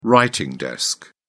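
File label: "writing desk", English, British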